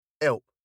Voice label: "help" British